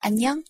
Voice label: hi in korean pronunciation